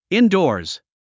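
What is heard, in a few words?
"indoors", English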